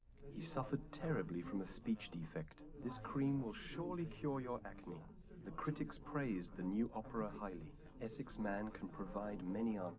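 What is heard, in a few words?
male3 live recording 130ms 5cm, underdetermined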